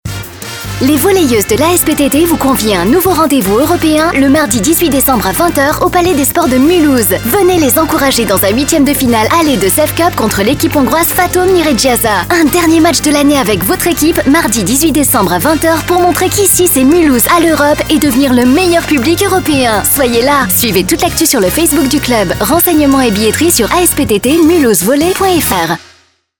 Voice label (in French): VOIX FEM Jeune Dynamique Sport